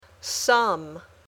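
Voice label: "sum", English word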